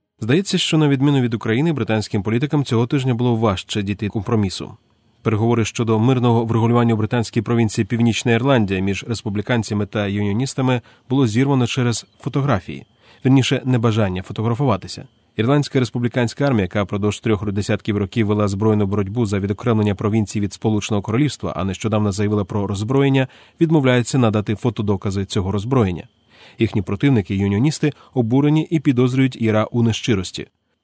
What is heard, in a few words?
UKRAINIAN